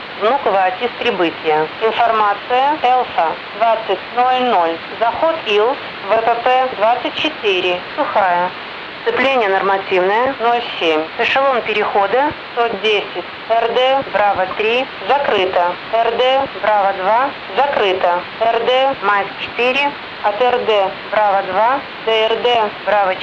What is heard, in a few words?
vnukovo atis